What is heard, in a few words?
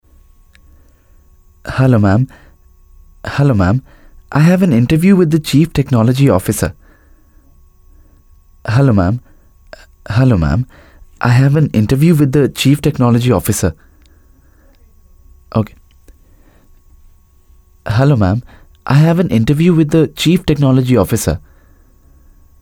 ENGLISH, male voice